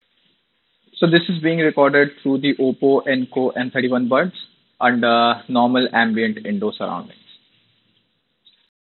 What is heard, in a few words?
Call recording